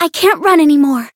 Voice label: Voice chant; saki

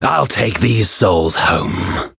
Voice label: variable chant; zeviro

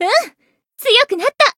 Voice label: Voice growth, jp

